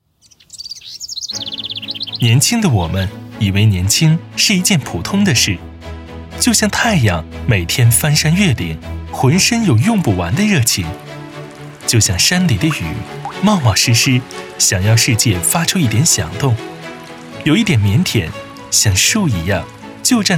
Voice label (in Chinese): 年轻偏自述